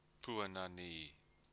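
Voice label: "puanani", Māori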